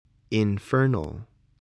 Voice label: "infernal", spelling voice